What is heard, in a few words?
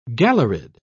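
"galleried", British English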